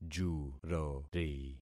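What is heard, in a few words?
"jurori", concatenated syllables; Affixing experiment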